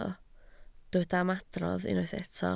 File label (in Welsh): "ymadrodd", Welsh